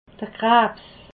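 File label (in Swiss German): "krabs", Alsatian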